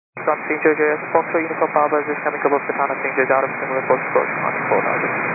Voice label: 122.925 MHz; scanner radio